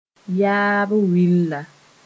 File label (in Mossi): "yaab willa", moore; ancestry